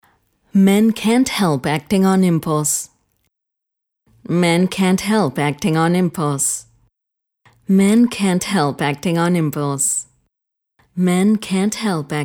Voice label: Nuevos Baj Crudo, Ingles (USA)

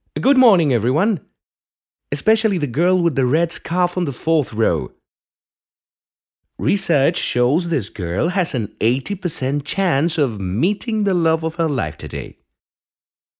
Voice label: Trans, Ingles (Britanico)

